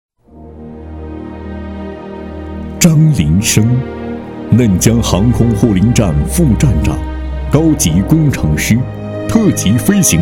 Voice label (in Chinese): 人物纪录片, 深情讲述